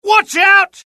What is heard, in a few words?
alert, dialogue UK; mohaa